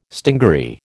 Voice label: "stingaree", American

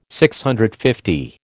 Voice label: "DCL", American

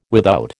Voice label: "without", American

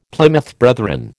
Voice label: "Plymouth Brethren", American

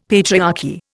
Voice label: "patriarchy", Indian; Dictionary

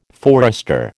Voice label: "forester", American

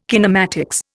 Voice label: "kinematics", Indian

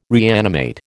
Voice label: "reanimate", American

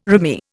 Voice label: "rimy", Indian

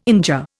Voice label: "injure", Indian

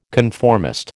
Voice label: "conformist", American

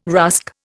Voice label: "rusk", Indian

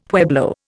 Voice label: "pueblo", Indian; Dictionary

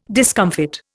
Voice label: "discomfit", Indian

